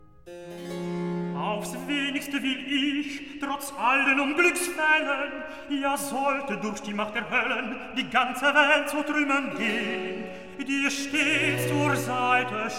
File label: Recitativo Petrus Jesus; Handel Masterworks